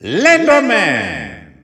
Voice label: French Announcer; SSBU